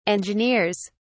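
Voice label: "engineers", British English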